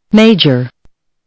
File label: "major", listening